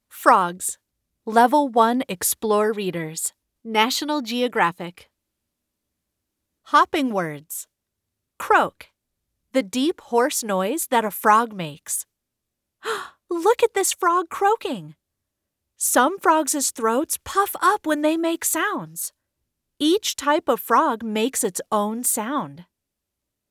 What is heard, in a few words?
eLearning